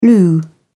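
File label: "loo", British English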